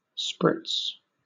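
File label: "sprits", British English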